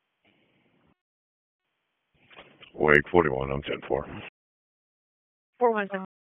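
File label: Dispatch, P25; wake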